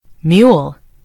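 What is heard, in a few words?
"mule", British English; gcompris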